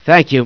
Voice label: "thank you", scientist; XDM